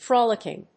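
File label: "Frolicking", English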